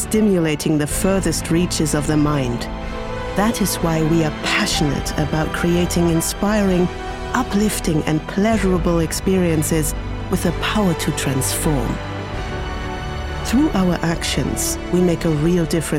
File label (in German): Imagefilm Mobility